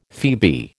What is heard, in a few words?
"phoebe", American